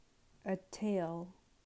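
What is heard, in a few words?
"A tail", English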